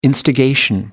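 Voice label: "instigation", British English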